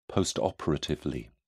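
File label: "postoperatively", British English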